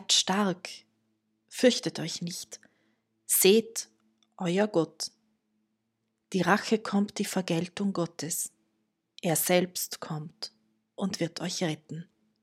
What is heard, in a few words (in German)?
Lesung; es ist nie zu spaet